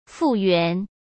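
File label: "复原" Mandarin Chinese; chinese-flashcards; hsk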